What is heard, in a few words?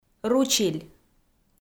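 "ручиль", Komi, female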